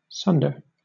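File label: "sunder", British English; pronunciations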